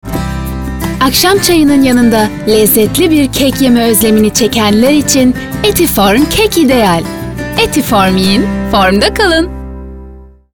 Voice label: tuerkisch werbung

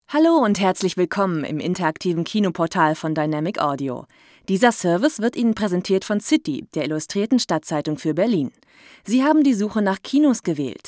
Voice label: deutsch frauen telefon

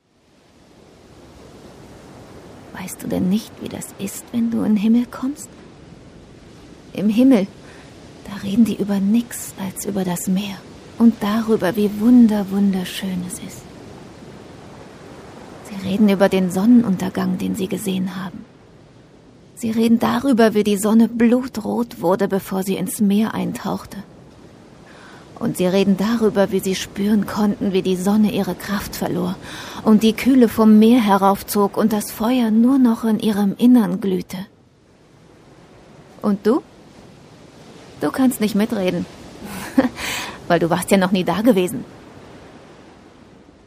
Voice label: rolle, deutsch frauen; sprecher

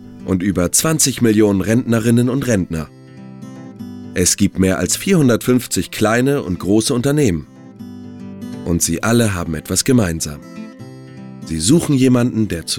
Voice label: de image, deutsch maenner; sprecher

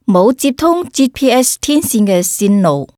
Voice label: kantonesisch telefon; sprecher; chinesisch

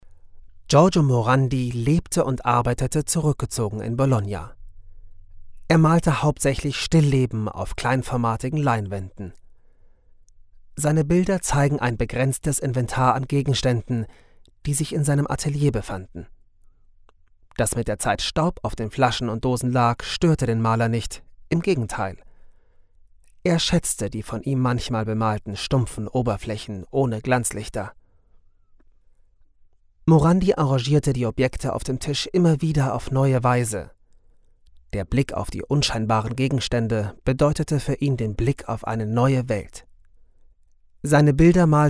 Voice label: audioguide, deutsch maenner; sprecher

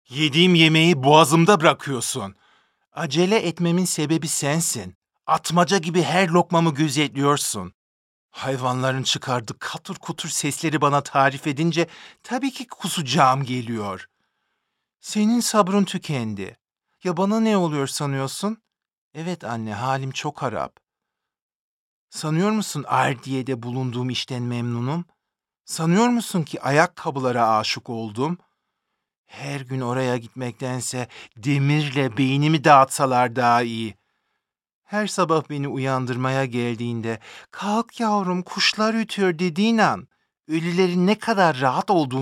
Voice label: tr erzaehlung, tuerkisch

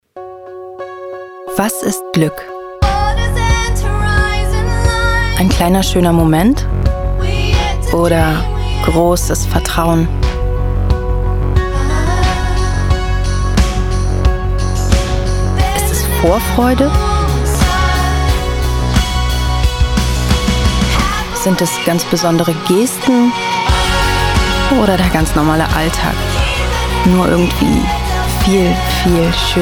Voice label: werbung, deutsch frauen

